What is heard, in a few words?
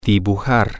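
to draw, Spanish, male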